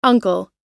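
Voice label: "uncle", US English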